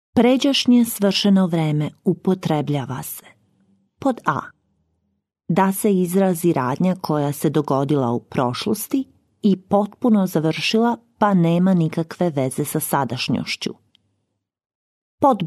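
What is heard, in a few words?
Bosnian female Training; voice over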